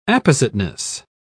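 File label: "appositeness", British English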